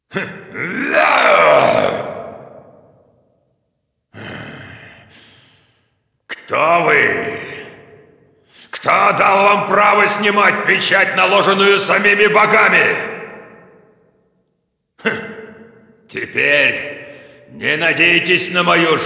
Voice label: cinematic voice, ru; lineage2